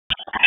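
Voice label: Public Safety Tactical, p25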